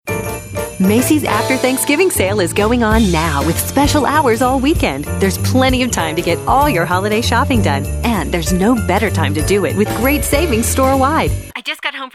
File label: Commercial